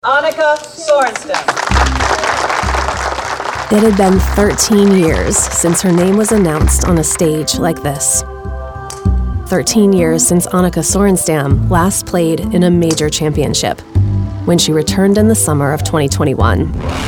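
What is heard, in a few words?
Narration, voiceover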